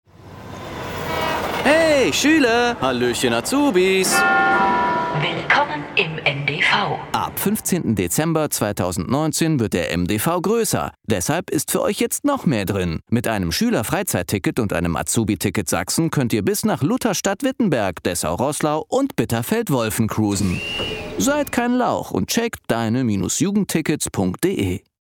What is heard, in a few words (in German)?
Werbung